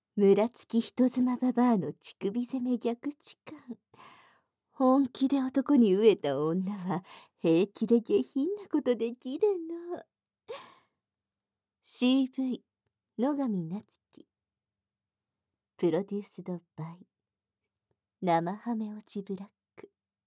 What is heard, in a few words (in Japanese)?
タイトルコール